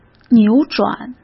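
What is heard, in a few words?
"扭转", Mandarin Chinese; chinese-flashcards; hsk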